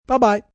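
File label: "Bye bye" male; Greetings And Goodbye; Goodbyes; VoiceBox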